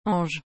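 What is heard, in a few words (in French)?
"ange", French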